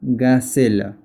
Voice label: Gazelle spanish